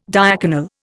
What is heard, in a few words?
"diaconal", Indian